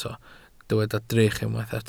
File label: "drych", Welsh